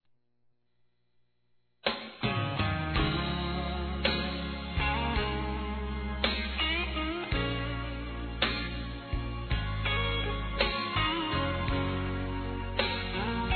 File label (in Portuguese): FOI BOM FICAR C VOCÊ F, Sensuais; Telemensagens; fem